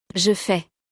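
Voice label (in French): "je fais", French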